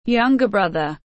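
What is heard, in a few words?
"Younger brother", English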